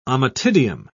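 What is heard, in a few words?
"ommatidium", English, British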